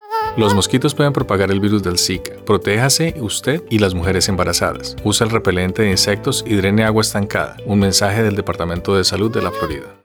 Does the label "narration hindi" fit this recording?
No